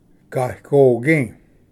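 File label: "gagogi", Cherokee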